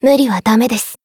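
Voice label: heal, Japanese; maturika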